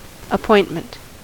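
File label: "appointment", American English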